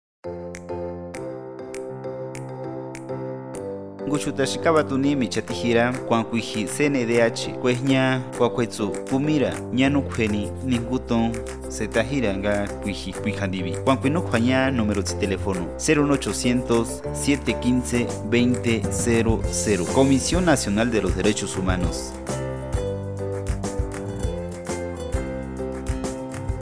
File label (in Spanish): Spot mazateco; Campanas; CNDH